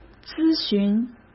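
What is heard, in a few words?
"咨询", Mandarin Chinese; chinese flashcards; hsk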